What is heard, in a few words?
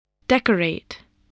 "decorate", English